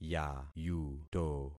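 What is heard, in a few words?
"yayuto", concatenated syllables; Affixing experiment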